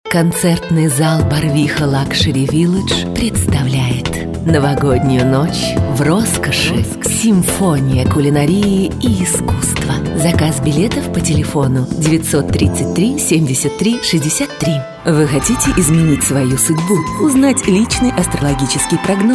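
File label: Russian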